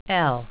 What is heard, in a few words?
"l", english